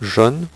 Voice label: "je ne", French; HyperSound™2